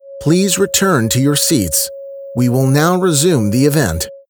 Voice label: EN M